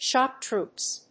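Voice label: "shock troops", English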